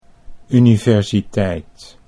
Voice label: "universiteit", Dutch

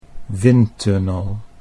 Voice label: "windtunnel", Dutch

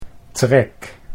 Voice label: "trek", Dutch

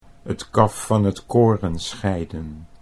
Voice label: "'t kaf van 't koren scheiden", Dutch

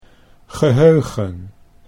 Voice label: "geheugen", Dutch

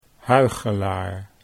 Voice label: "huichelaar", Dutch

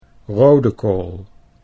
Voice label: "rodekool", Dutch

